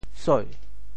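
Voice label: "soi2", Teochew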